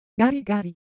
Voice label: Japanese, Ideo Phones